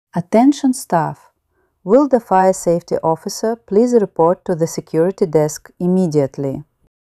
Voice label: emergency announcement staff call officer; emergency voice announcements